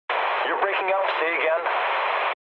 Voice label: eng chat, speech eng